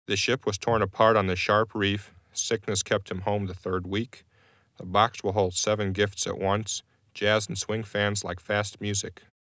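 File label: English, male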